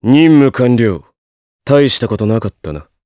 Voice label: Vox Victory, jp; Riheet; kingsraid